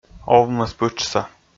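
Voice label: "овмӧспытшса", Komi, male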